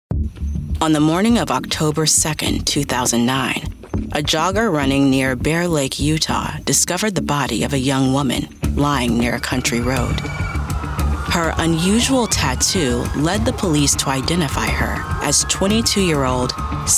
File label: Voiceover, Commercial, Women; New York